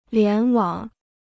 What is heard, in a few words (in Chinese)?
"联网", Chinese